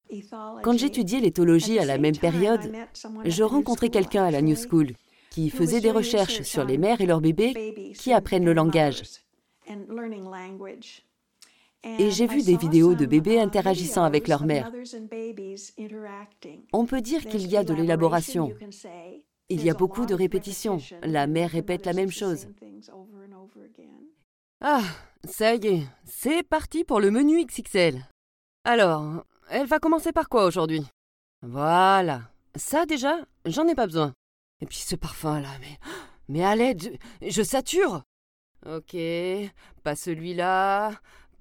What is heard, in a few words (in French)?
démo acting